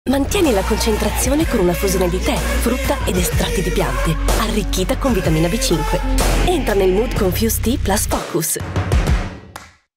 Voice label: female Italian commercial; fuze tea; Devine Voices